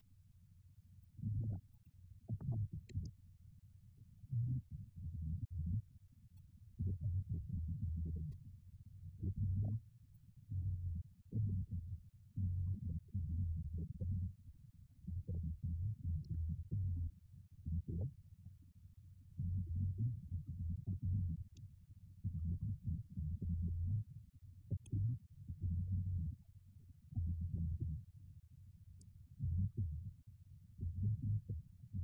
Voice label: radio banter; The Clifford Ball